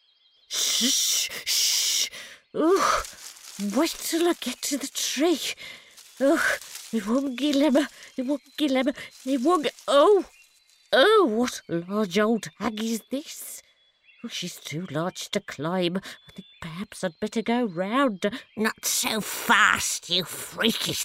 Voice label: cartoon